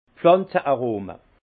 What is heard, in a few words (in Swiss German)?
"pflanze", Alsace; vin; arômes végétaux